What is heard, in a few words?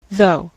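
"though", US English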